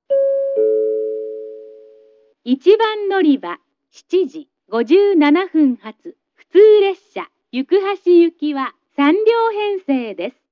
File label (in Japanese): announce; yukuhashi; jrkyushu; moji st